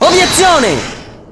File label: "Objection", Italian; Apollo